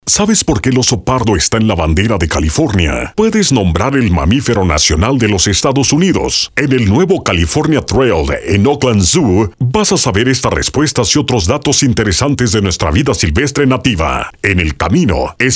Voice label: Audicion Zoo Spanish; Oakland Zoo

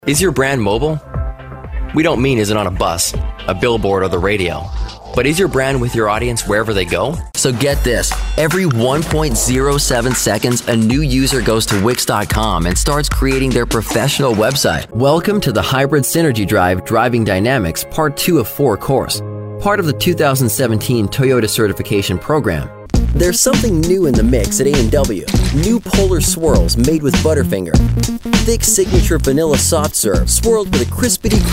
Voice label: Corporate

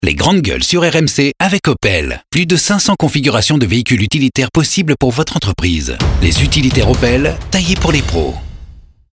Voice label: radio

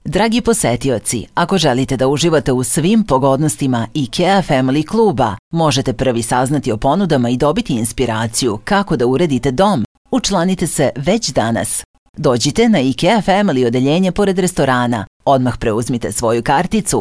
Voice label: Tour Guide, Serbian, Female Voice; In Store; Ikea

